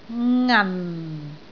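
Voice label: "ngan4", Cantonese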